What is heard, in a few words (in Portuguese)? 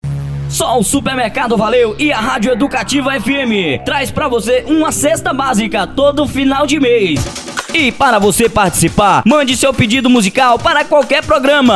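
spot promocao; sexta basica setembro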